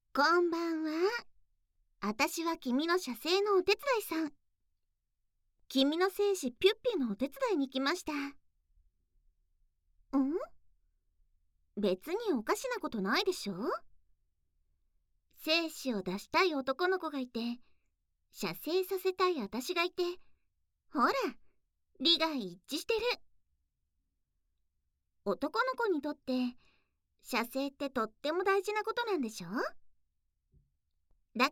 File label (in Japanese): ささやき; ささやきと淫語手コキ